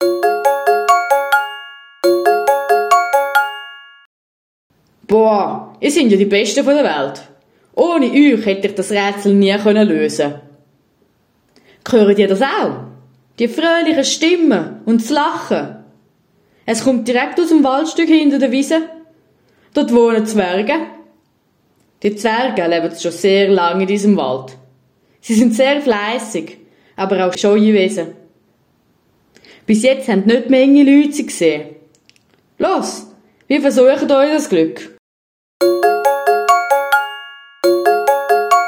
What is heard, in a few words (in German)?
Zwischentext Stier mit Jingle